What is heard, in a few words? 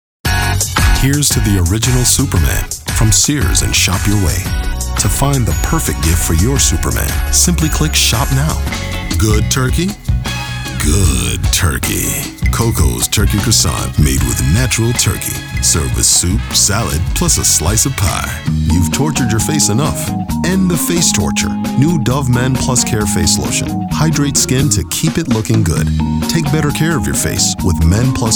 Comm, male voice talent